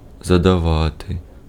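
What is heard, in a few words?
Ukrainian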